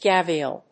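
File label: "gavial", English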